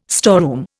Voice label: "storeroom", Indian

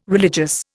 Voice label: "religious", Indian

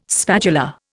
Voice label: "spatula", Indian

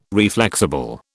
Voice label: "reflexible", British

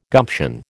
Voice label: "gumption", American

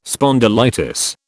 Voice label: "spondylitis", British

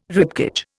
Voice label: "ribcage", Indian

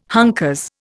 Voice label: "hunkers", Indian

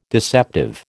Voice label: "deceptive", American

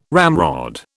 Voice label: "ramrod", British

